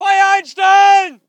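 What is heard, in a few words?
voice german infantry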